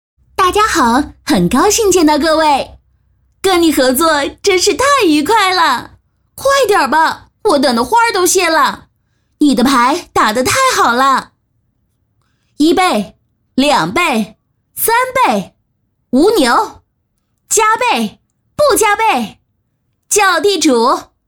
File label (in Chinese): 女 游戏 打牌